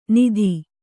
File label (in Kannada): "ನಿಧಿ", Kannada